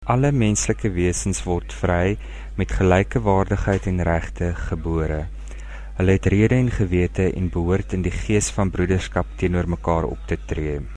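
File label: Afrikaans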